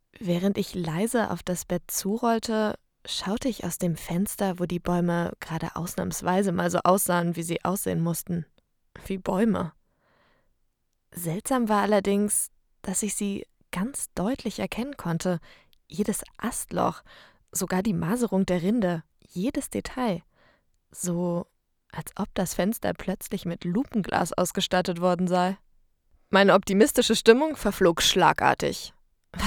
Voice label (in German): Hoerbuch Lesung